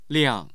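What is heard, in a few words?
"liang", Mandarin Chinese, tone 4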